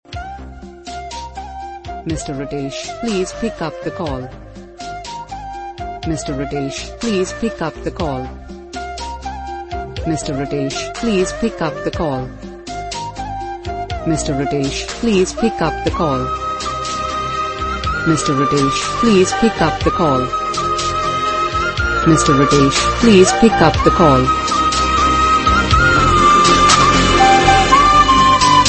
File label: Please Pickup The Call Ringtone